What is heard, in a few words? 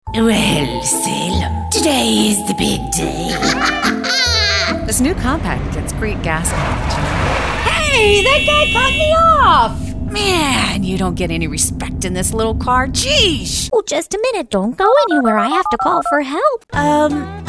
Cartoons and Characters